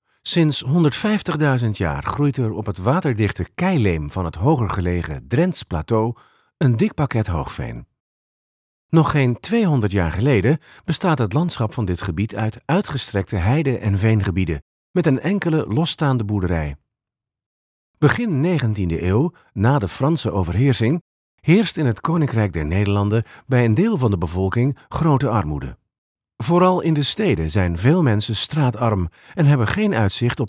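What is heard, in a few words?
NL M narration, Dutch, male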